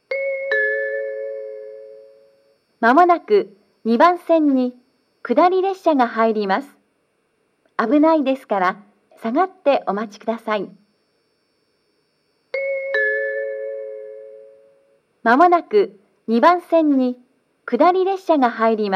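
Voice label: platform 2 down approaching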